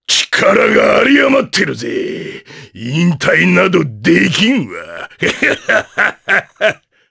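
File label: vox dia Japanese; Ricardo; kingsraid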